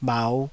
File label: "bhau5", taiwanese